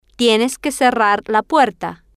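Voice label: tienes que cerrar la puerta, Spanish, female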